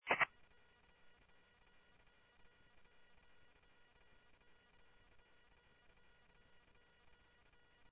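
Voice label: Sheriff East County, scanner audio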